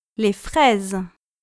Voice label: "les fraises", French